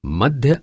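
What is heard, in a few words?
"mEdhe" Hindi, male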